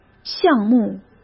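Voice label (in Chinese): "项目", Mandarin Chinese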